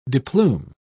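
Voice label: "deplume", English, British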